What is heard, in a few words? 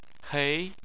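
"hei", Chinese, second tone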